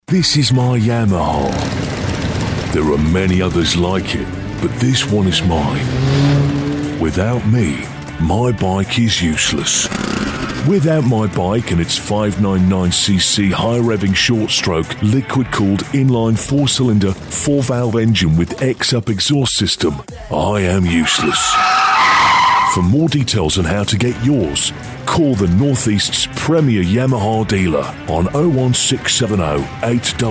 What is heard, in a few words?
motorcycles advert